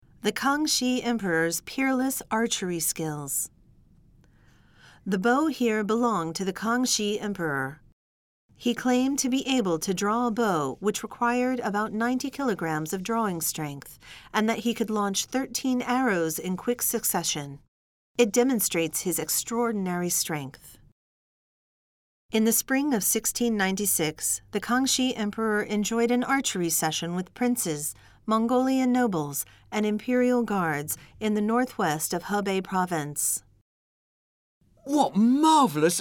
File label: Drama Eng; audio guide